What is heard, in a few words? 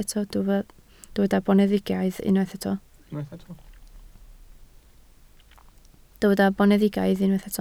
"boneddigaidd", Welsh